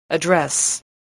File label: "address", English voice